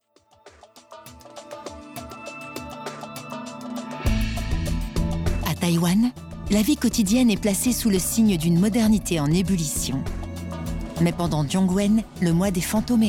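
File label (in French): Doc Intro, comédienne voix off; Arte; Taiwan Mois des fantomes errants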